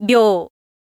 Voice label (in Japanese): info lady "byou"